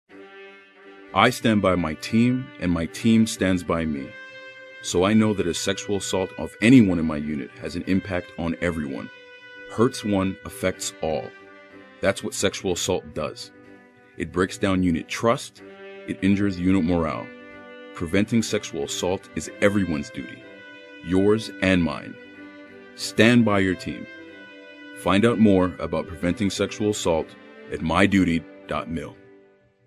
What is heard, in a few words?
Radio PSA; DoD; SAAM